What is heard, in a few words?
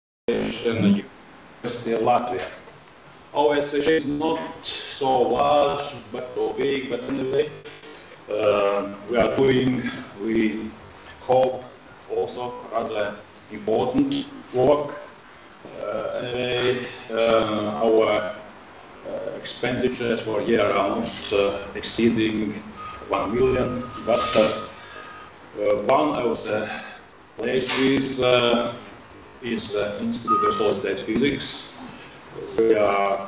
formal opening